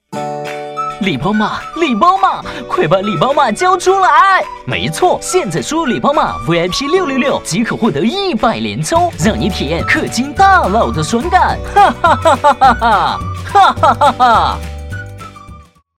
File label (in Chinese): 男, 广告配音, 台湾腔; 声音百变; 港台腔; 礼包码